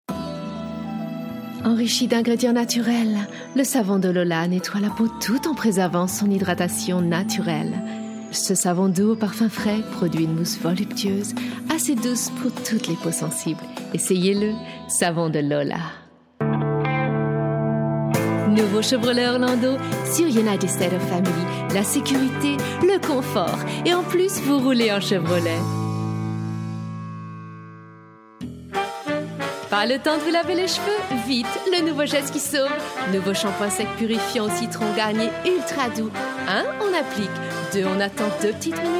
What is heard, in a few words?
commercial, french, female